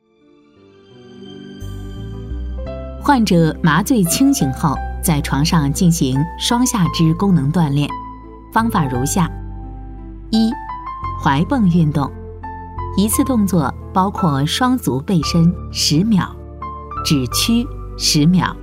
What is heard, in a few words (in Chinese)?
女-术后讲解【医药介绍-腰椎术后宣教】